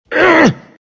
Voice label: anger; Omniknight